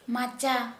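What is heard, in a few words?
Santali female hammock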